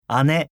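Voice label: "ane", Japanese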